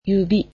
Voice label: "fingers", japanese